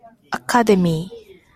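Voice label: "academy", Japanese, female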